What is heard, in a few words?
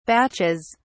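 "batches", British English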